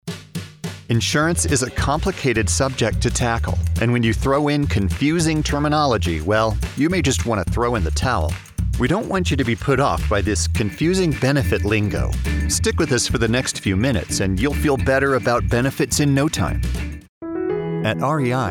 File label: narration